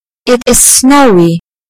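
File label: "snowy", British English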